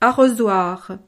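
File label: "arrosoir", French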